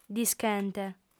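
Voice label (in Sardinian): "dischente", female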